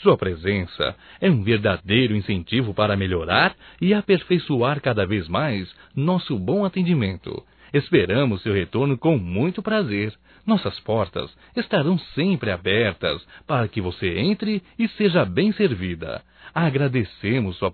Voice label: CLIENTE PROFESSORA, masc; Profissionais; Telemensagens 2017

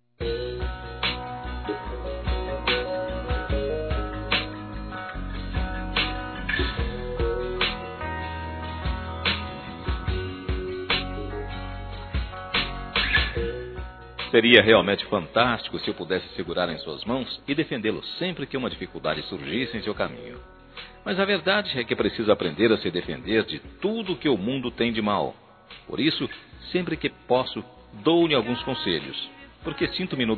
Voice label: Aniversário Neto Masculino; Aniversários; Aniv Familiar

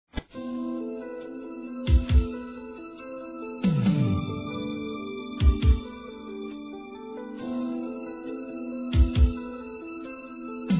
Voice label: SAUDADES M; Telemensagens

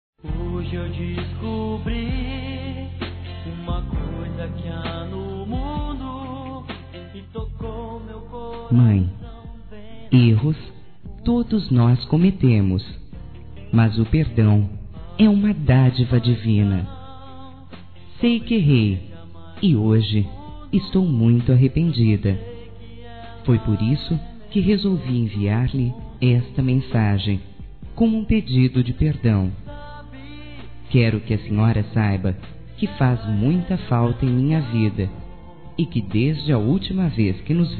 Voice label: DESCULPA MÃE F, Telemensagens, Dia das Mães; Telemensagens 2017

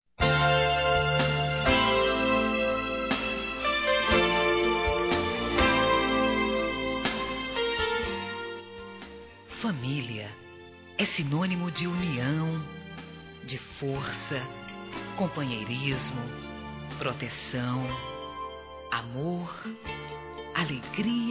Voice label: Saudades Familiar Feminino; Telemensagens

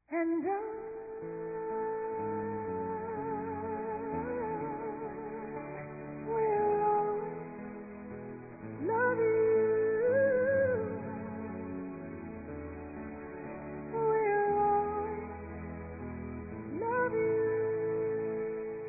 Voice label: ROMANTICA F, Telemensagens Dia dos Pais; Telemensagens 2017

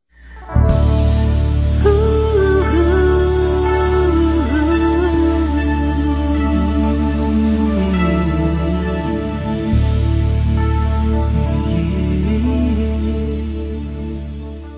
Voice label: Natal Romantico Masculino; Telemensagens; Natal e Ano novo

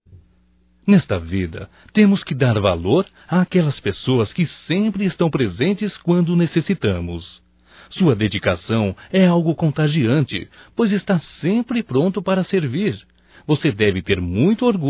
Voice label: Dai Eletricista Masculino, Telemensagens, Só Voz; DATAS COMEMORATIVAS

